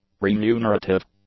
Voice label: "remunerative", English; adjectives

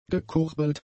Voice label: "gekurbelt", German; adjectives

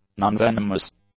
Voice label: "nonvenomous", english; adjectives

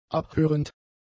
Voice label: "abhörend", German; adjectives

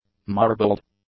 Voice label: "marbled", English; adjectives

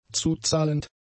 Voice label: "zuzahlend", German; adjectives

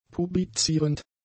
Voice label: "publizierend", German; adjectives

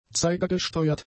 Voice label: "zeigergesteuert", German; adjectives

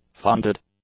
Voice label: "funded", English; adjectives

